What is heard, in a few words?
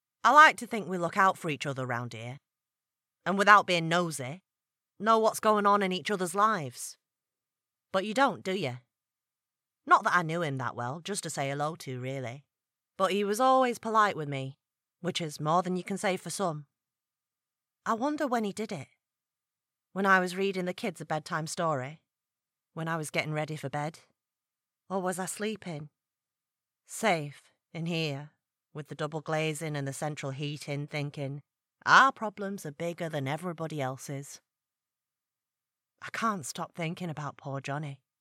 Manchester, Monologue; Coronation Street